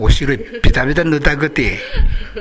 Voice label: "nudaguru", minamiyamagata